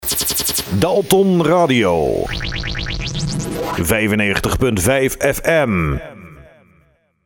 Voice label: Jingle; Audio Fragmenten; Arkan Radio 2